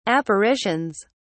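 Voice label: "apparitions", British English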